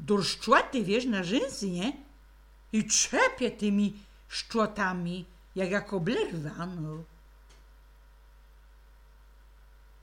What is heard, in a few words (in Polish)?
blazury blazurka, gwarowy